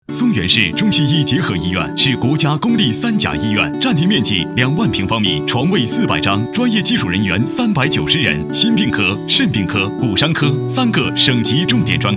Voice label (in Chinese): 广告 医院, 国语男声配音; 吉林中西医院